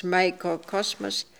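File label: "Microcosmos", pronunciations; Fourth Way; Beelzebub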